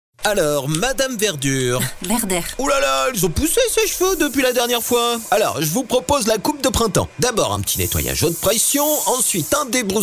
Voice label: french commercial

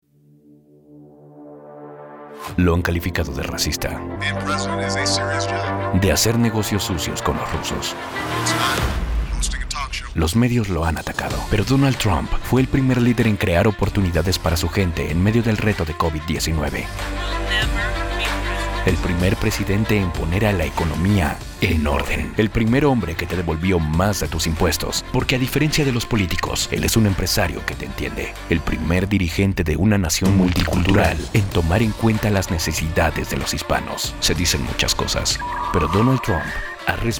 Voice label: spanish latin american political ads

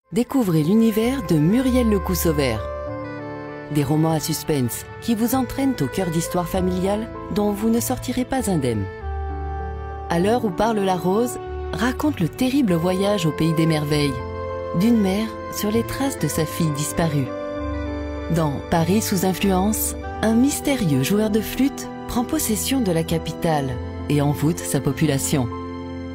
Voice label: french movie trailers

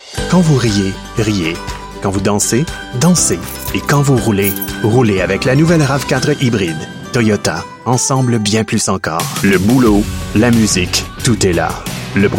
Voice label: french canadian commercial

